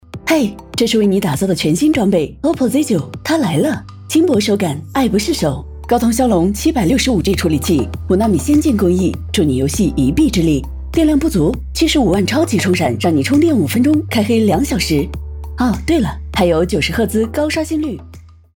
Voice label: 女 广告 时尚酷飒科技; OPPOz9

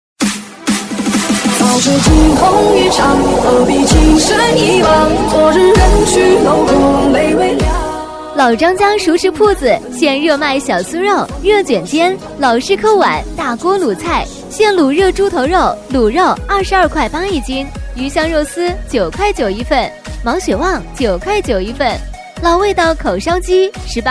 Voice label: 女, 促销 熟食铺; 老张家熟食铺

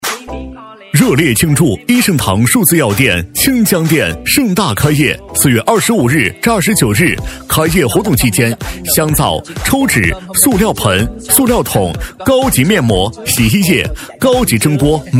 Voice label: 男 促销 数字药店 配乐版; 壹圣棠数字药店清江店

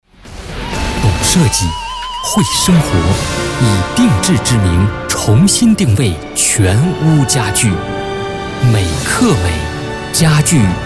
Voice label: 男, 广告 家具

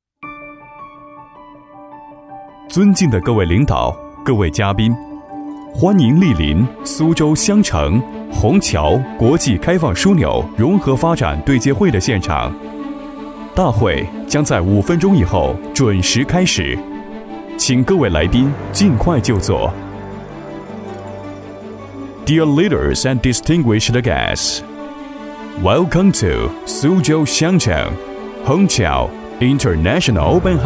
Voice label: 男 英语 中英播报 大会中英提示音

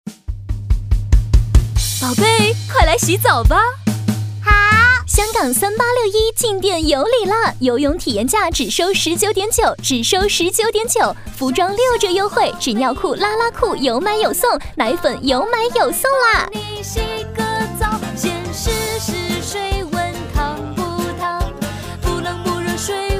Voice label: B女 促销; 香港三八六一

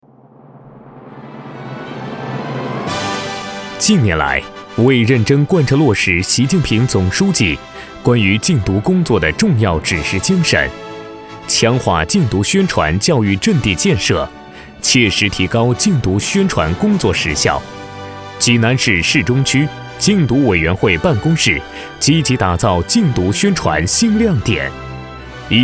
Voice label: 党政宣传

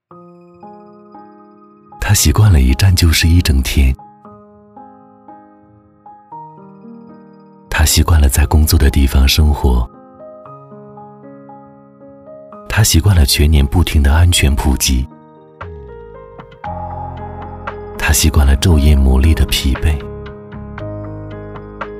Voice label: 男, 旁白; 九龙坡

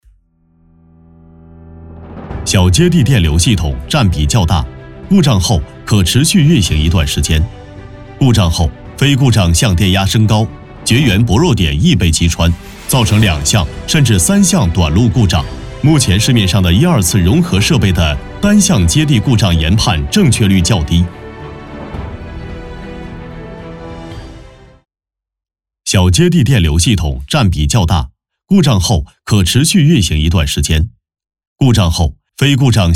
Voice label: A男 宣传片; FTU配套单相接地故障精准研判外置模块

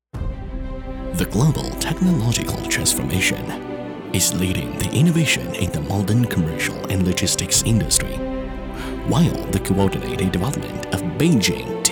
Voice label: 男 英语 中年浑厚 官方专题

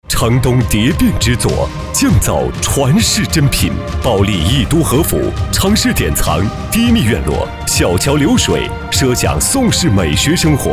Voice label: 男 品质广告 别墅; 保利别墅

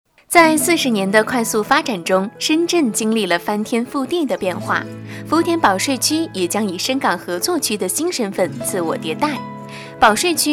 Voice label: 女; 深港服务中心

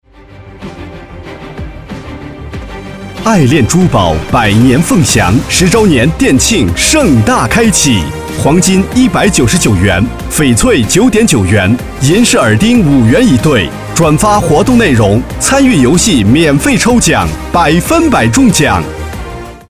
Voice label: C男, 促销; 爱恋珠宝百年凤祥

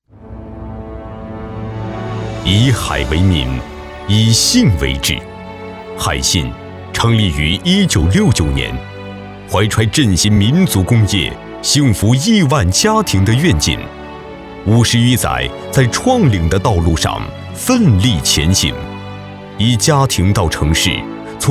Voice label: B男 大气宣传片; 海信生命利学冷链

